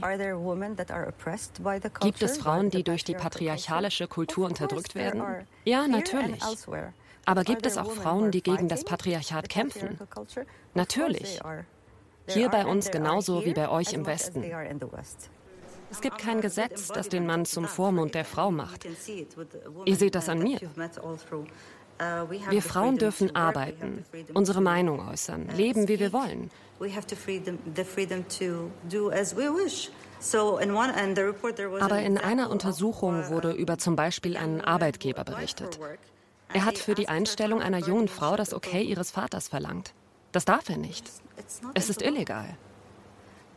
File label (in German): Doku Voice Over; Katar Inside; Weltspiegel